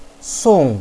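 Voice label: "song4", Chinese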